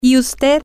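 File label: how about you, spanish, female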